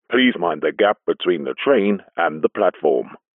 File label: transport train recorded message male english please mind the gap between the